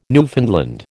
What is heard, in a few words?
"Newfoundland", American